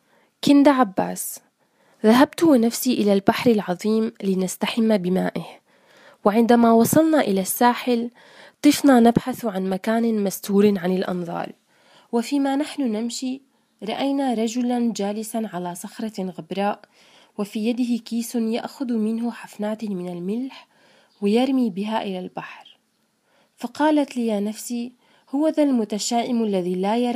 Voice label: arabic female voices